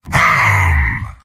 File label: soldier kaboom; video games; source engine; tf; mvm